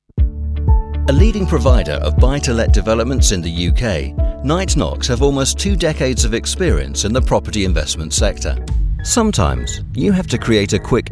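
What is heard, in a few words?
Corporate, British Male Voice Over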